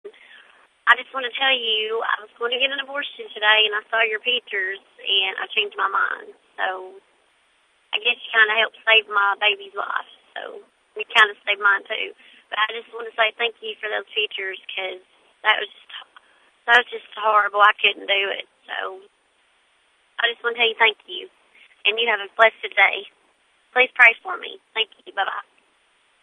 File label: voice mail; another baby saved